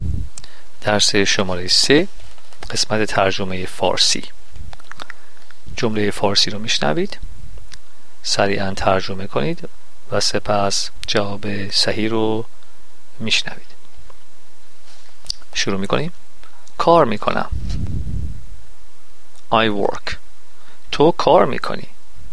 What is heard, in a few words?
farsi trans